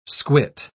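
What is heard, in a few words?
"squit", British English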